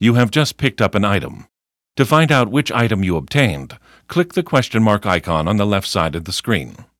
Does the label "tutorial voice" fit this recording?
Yes